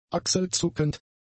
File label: "achselzuckend", german; adjectives